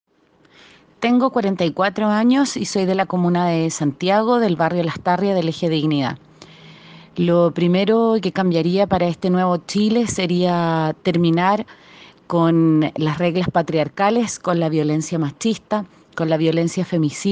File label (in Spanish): mujer